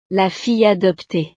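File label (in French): "la fille adoptee", French; familia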